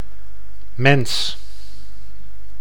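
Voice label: "mens", Dutch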